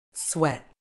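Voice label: "sweat", British English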